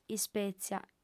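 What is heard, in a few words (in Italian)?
"ispètzia", lingua sarda, fem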